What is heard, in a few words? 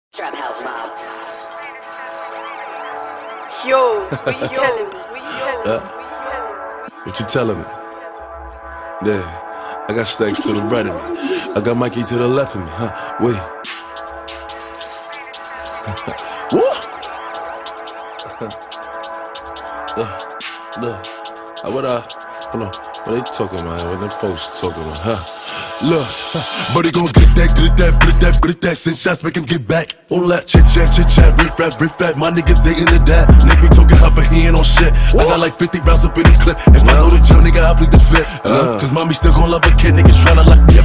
arabic rap